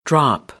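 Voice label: "drop", American English; AmericanBritish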